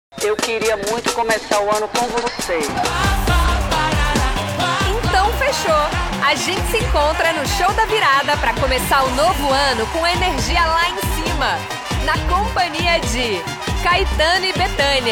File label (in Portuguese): Locutora; Show da Virada; Multishow